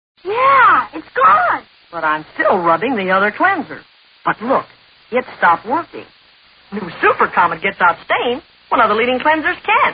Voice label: Commercial; Comet